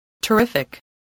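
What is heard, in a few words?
"terrific", English word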